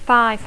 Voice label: "five", English

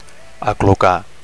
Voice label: "aclucar", Catalan

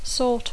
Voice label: "sort", English; tipus; mena; verb tr conj ordenar

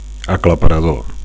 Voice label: "aclaparador", Catalan; overwhelming; massive

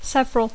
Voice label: "several", English

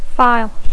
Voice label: "file", English

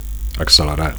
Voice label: "accelerar", Catalan; to accelerate; to speed up

